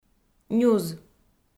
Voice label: "нюз", Komi

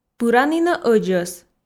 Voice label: пыранінӧ ӧдзӧс, f

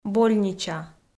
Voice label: "больнича" kpv f

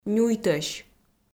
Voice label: "нюйтӧсь", Komi

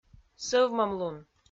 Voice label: "сӧвмӧмлун", Komi

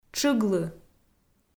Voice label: "тшыглы", Komi

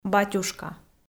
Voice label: "батюшка" kpv f

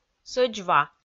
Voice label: "сӧдз ва", Komi

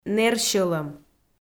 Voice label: "нэр сьӧлӧм", Komi

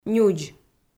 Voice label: "нюдз", Komi

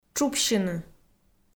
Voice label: "тшупсьыны", Komi

